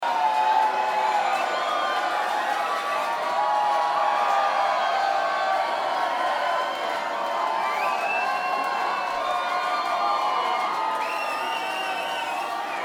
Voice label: Banter Crowd